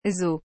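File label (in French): "zoo", French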